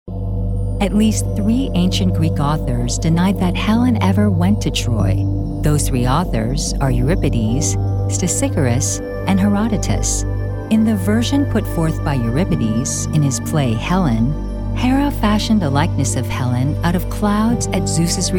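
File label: English, US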